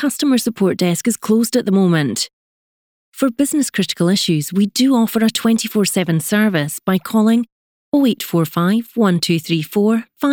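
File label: Scottish English, female, IVR on hold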